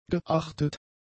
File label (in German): "geachtet", German; adjectives